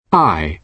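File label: "i", British English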